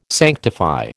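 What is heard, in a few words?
"sanctify", American English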